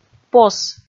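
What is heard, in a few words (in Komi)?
"пос", Komi, female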